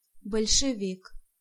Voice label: Bolshevik, Pronunciation

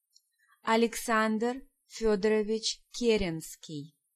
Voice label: "Keren", Pronunciation

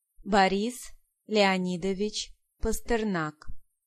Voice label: "Pasternak", Pronunciation

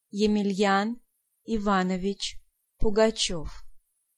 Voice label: "Pugachev", Pronunciation

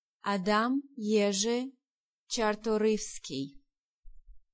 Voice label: "Czartoryski", Pronunciation

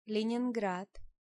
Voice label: "Leningrad", Pronunciation

